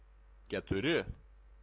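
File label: "keturi", Lithuanian, male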